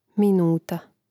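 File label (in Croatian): "minuta", Croatian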